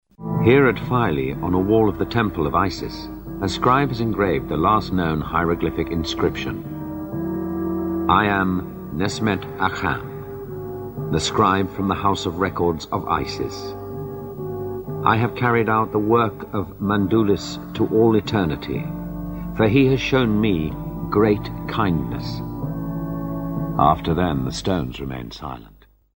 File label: Docu Narration Temple of isis